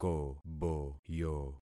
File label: "koboyo", concat syllables; Affixing experiment